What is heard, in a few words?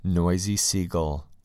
noisy sea gull